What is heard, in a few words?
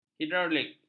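"hidraulik", Malay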